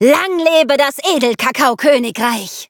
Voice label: victory, de